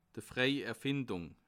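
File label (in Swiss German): "frei", Bas Rhin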